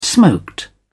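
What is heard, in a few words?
"smoked", British English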